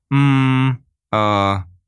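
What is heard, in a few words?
"ummm", English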